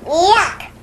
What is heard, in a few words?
yuck boy; Voice